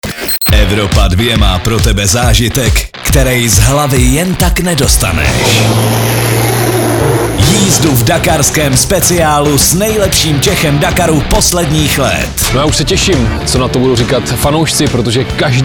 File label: liner; evropa2; dakar